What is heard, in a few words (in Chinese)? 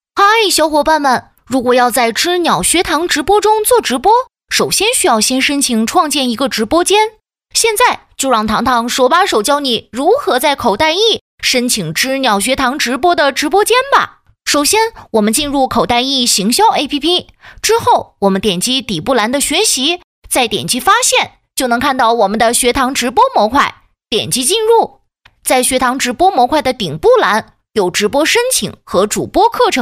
女 童声 直播课 10-12岁男童